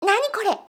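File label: "nanikore", Japanese, komaru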